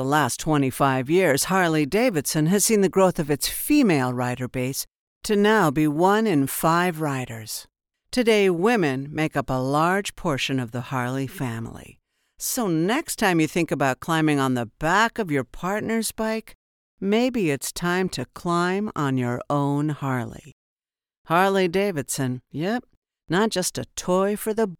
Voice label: Motorcycle Bold Strong Confident Low tone, voiceover